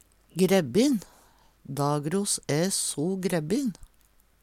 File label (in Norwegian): "grebbin", Numedalsmål